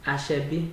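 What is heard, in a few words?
"asoebi", Nigerian Pidgin, male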